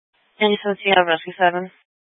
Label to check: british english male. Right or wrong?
Wrong